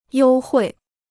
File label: "优惠", Chinese